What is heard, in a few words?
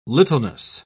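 "littleness", British English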